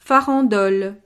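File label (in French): "farandole", French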